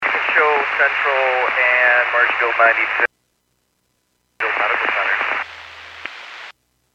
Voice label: Fire Dispatch

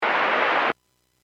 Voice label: Aviation 121.5 Emergency

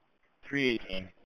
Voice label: Police Sheriff Dispatch

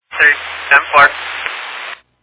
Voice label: Fire Dispatch

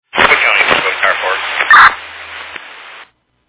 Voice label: Fire Dispatch

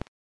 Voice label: Aviation 121.5 Emergency

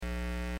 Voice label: Aviation 121.5 Emergency

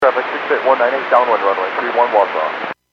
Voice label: Airports 122.7, Radio; KSTE

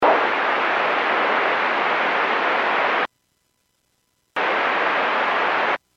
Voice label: Aviation 121.5 Emergency

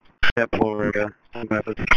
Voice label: Police Sheriff Dispatch

